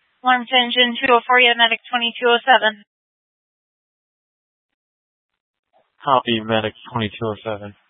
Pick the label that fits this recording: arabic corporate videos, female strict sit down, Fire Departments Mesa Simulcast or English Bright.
Fire Departments Mesa Simulcast